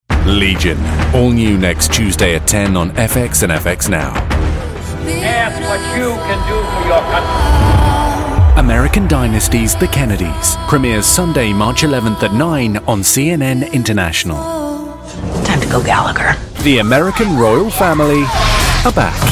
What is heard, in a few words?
english british promos